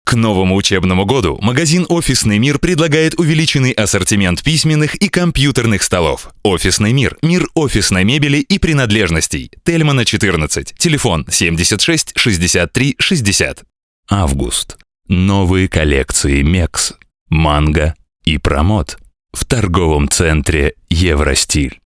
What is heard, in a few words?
russian m commercial; voice demos